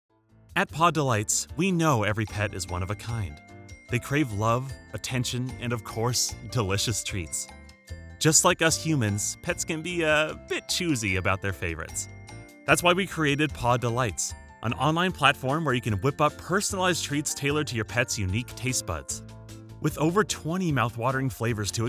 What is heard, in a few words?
Commercial